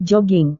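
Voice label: "joging", Indonesian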